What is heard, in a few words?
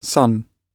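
"son", British English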